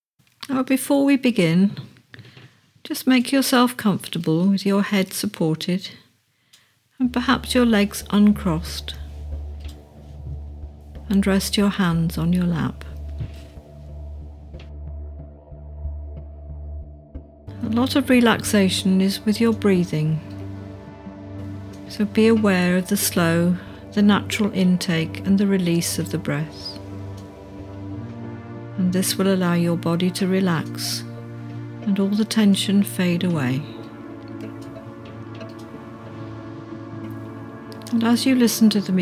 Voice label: Meditation; Rainbow